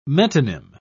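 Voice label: "metonym", English, British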